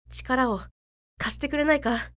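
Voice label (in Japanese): 女性 tikara wo kasite kurenaika, 低音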